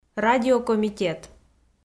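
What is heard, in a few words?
"радиокомитет", Komi, female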